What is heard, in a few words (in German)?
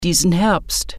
"this fall", German